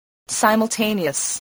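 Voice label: "simultaneous", spoken word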